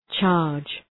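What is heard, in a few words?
"charge", English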